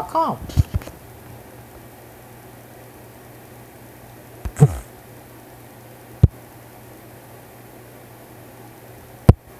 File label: Spanish verb write; spanish verbs